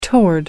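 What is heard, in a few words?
"toward", British English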